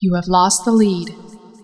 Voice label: lost lead, female